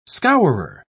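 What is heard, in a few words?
"scourer", British English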